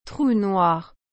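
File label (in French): "Trou noir", French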